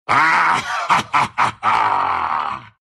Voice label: level up, ru; dota2